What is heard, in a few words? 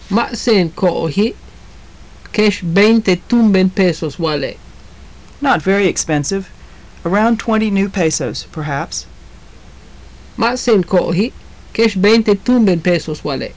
conversation, maya; Ma' seen koo'oji'. Kex $20.00 túumben pesos wale'.; Not very expensive. Around 20 new pesos perhaps.; JUNP'IIT MAYA